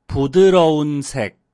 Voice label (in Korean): "부드러운", Korean